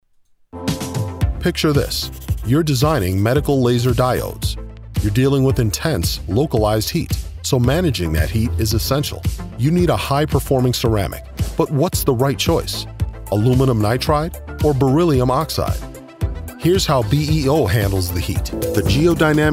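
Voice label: Corporate Narration, African American Voice Actor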